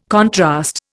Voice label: "contrast", Indian